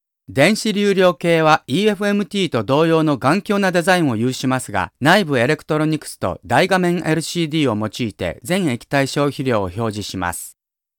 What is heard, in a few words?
Japanese male; Foreign language voice over